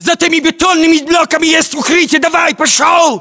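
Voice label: order cover cinderblocks, battlechatter, Russian; localized english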